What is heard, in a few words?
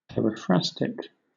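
"periphrastic", British English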